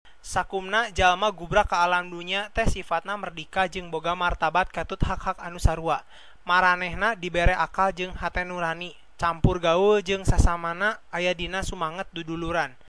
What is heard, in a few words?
Sundanese